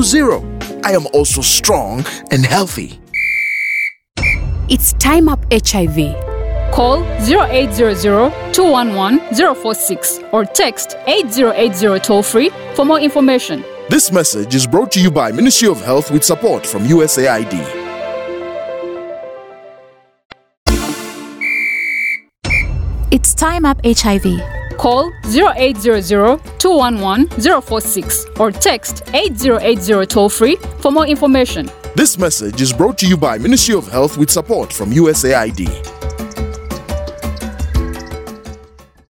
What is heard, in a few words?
HIV Spot Viral Load Suppression English; radio spot